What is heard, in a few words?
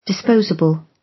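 "disposable", British English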